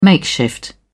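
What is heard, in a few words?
"makeshift", British English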